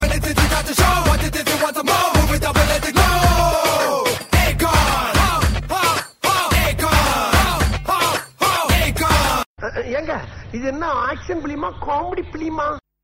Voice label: Rap Comedy; Ajith